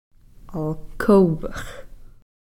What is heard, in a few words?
"alkho'px", grey